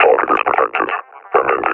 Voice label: announce attack cover, officer; Half Life Alyx; Half Life Model Pack